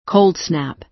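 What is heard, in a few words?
"cold snap", American English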